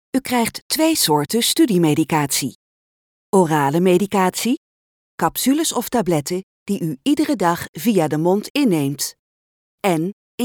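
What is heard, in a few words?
Dutch E-Learning; GoLocalise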